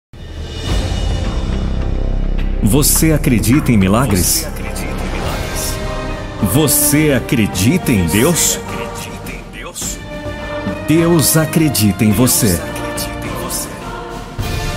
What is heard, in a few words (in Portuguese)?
locutor gospel locução para igreja evangélica